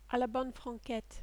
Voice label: "a la bonne franquette", French